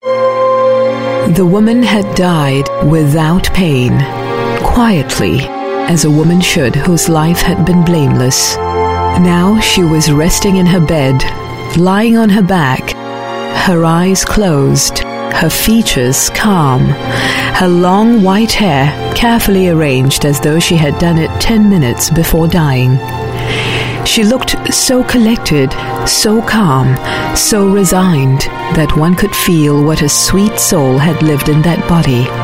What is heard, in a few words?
AudioBook, English Singaporean Female